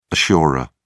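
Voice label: "assurer", British English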